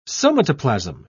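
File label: "somatoplasm", British English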